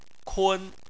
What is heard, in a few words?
"kun1", Chinese